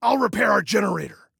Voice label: Self Repair Generator, Male